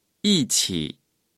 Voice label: "一起", Chinese

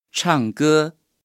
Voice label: "唱歌" Chinese

